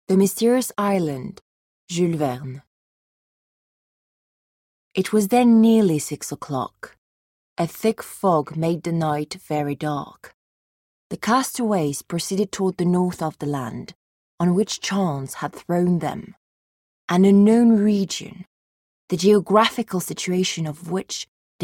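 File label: French Accented English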